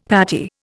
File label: "patty", Indian; Dictionary